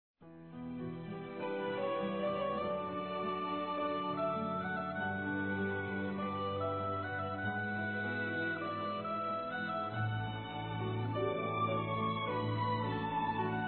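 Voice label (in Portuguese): PERDÃO, Masculino; Dia das Mães; Telemensagens 2017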